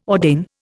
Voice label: "ordain", Indian